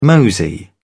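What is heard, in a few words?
"mosey", British English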